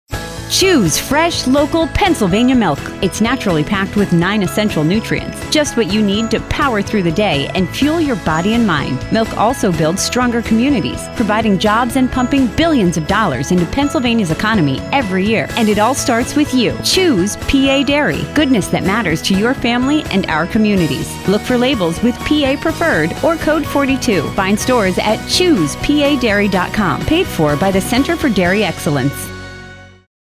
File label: Radio Spot; PA Dairy